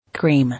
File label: "Cream", English